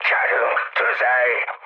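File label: attack position, mood high, Hungarian; War Thunder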